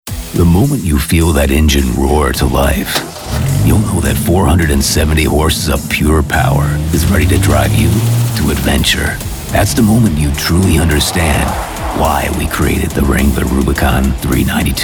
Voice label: VO commercial